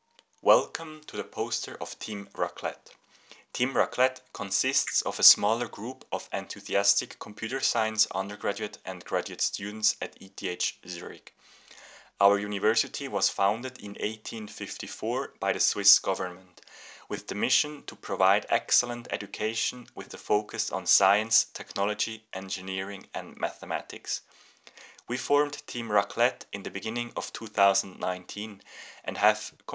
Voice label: Voiceover; ClusterComp 2020